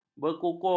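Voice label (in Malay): "berkukur", Malay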